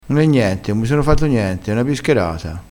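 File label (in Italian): "bischerata", dialettale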